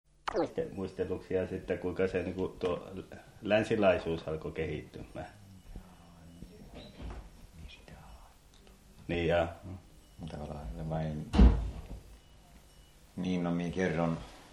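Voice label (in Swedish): Övertorneå Norrbotten, dialekter; Folkminnen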